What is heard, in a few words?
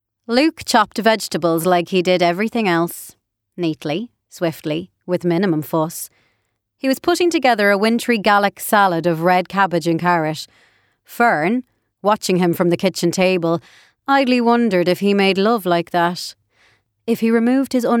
Audiobook; A Not Quite Perfect Family